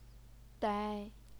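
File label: "dai", first tone, Chinese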